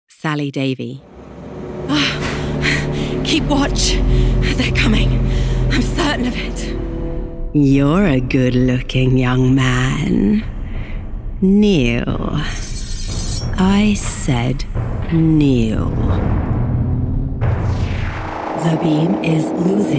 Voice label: Character voice

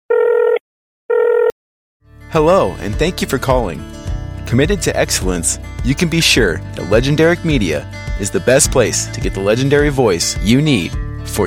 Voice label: IVR

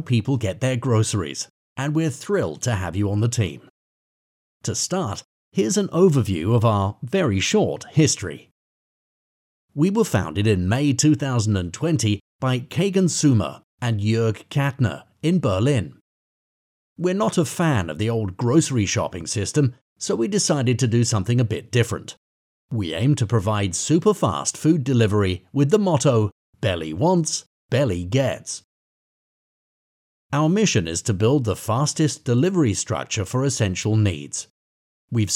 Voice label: Friendly corporate welcome video

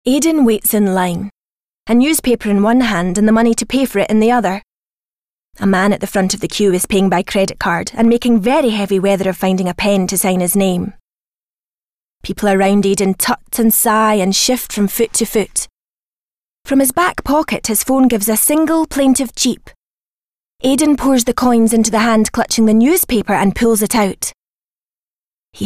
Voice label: audiobook

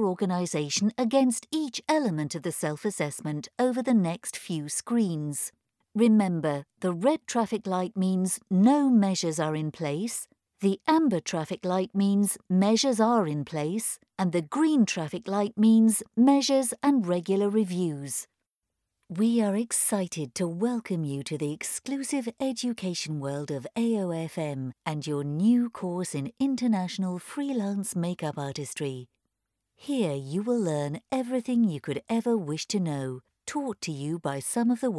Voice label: E-learning

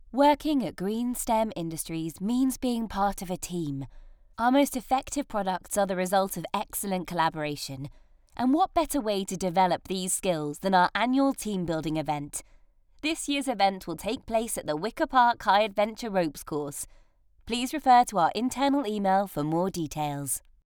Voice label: Corporate Conversational

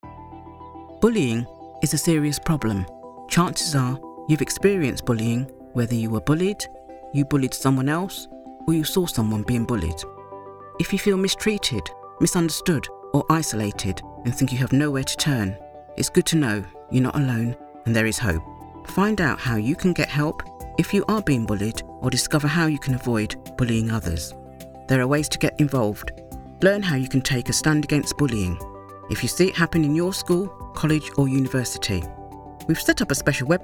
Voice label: e Learning

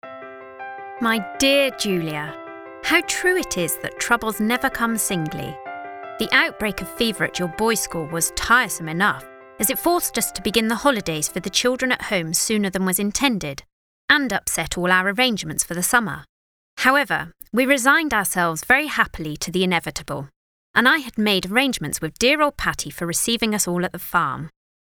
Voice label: audiobook

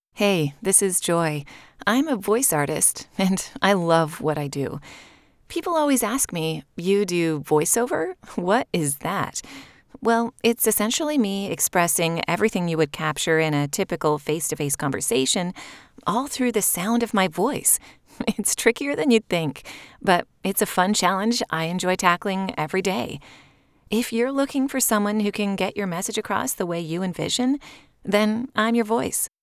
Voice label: Studio Sample

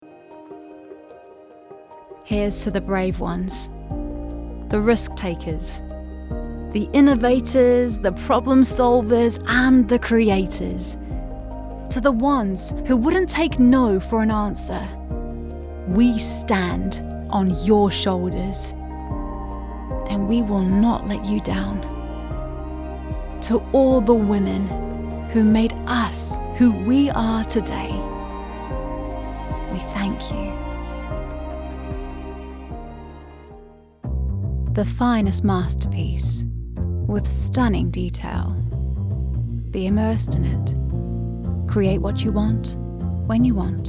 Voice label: UK Commercial; BIGMOUTH

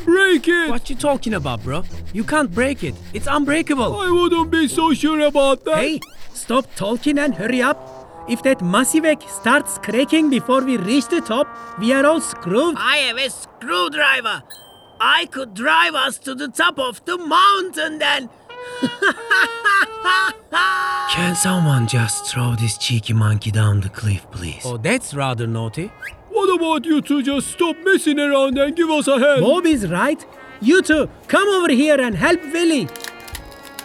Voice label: Animation Reel

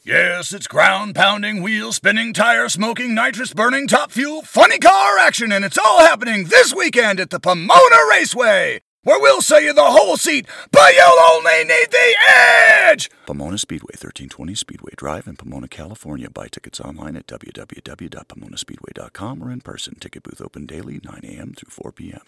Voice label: Drag Strip promo

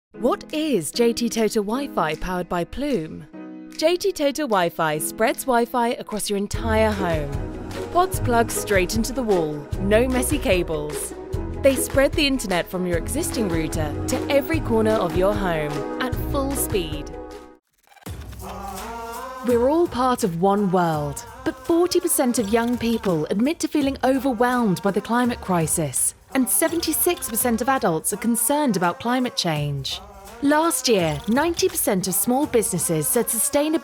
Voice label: EXPLAINER REEL

